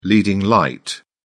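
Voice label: "leading light", English, British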